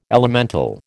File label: "elemental", American